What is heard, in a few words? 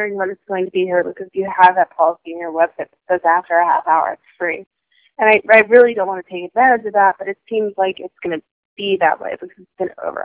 pizza voicemail